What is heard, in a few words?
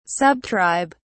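"subtribe", British English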